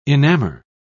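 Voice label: "enamor", British English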